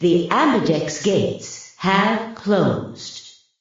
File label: announcer gate closed